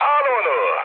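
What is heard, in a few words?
attack position, mood med, Turkish; War Thunder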